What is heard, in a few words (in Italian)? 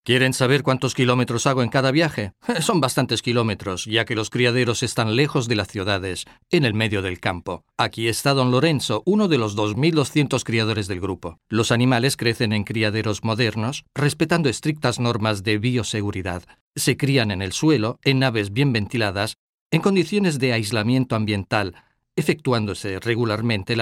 spagnolo